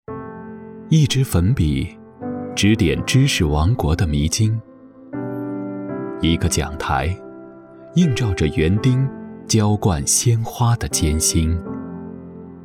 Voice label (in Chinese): 独白旁白年轻坚定平凡